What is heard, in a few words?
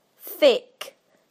"thick", UK; PictureDictionary